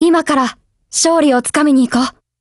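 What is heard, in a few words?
BLACK ACTION Japanese, Voice; GF Alarm; Colt SCW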